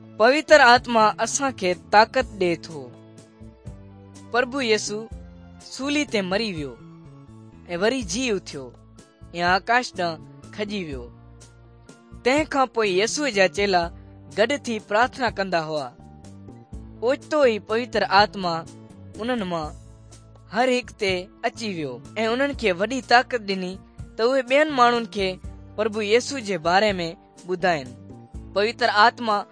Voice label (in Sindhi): good news sindhi dukslinu, drama